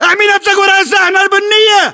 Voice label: order cover truck brown, battle chatter, english